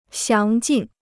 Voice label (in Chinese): "详尽", Chinese